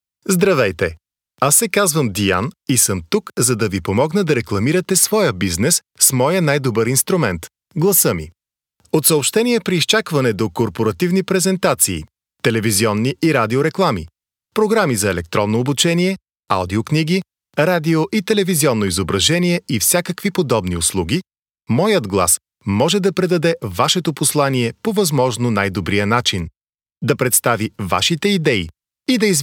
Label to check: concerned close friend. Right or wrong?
Wrong